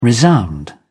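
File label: "resound", British English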